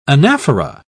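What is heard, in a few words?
"anaphora", British English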